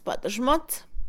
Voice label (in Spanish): calzones, Mixe, Mujer; Ropa; INALI